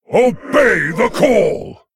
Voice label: Male Lich Picked